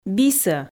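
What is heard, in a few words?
"бисӧ" Komi, female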